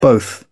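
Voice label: "both", British English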